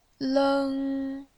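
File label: "leng", Mandarin Chinese, first tone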